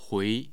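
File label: "hui", Mandarin Chinese, tone 2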